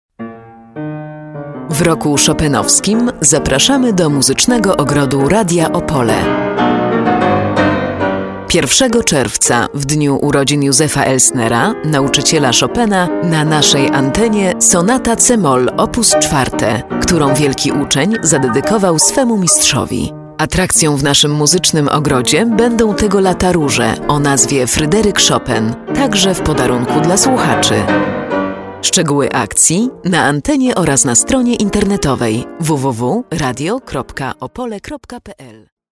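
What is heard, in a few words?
Polish, female, narration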